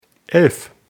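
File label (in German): "elf", German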